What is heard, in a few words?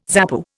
"ZAPU", Indian